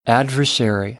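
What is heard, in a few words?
"adversary", American English; AmericanBritish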